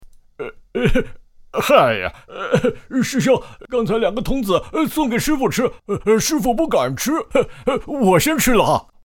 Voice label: 模仿系列 猪八戒 模仿

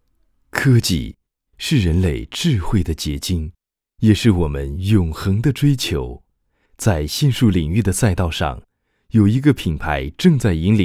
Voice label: 科技现代

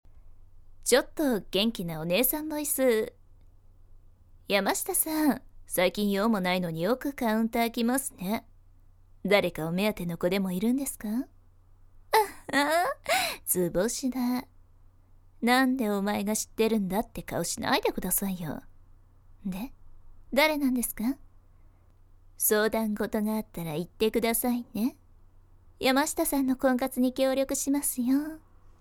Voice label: 开朗的姐姐

